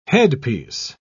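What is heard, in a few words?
"headpiece", British English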